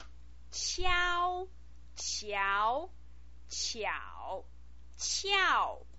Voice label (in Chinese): "qiao", pinyin